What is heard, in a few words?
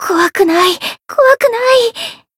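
encounter, Japanese; orleya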